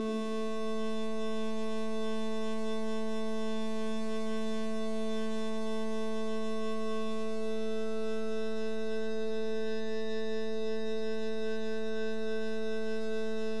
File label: vowel tension; embodied sonification